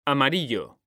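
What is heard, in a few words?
"amarillo", Spanish, male; mekanta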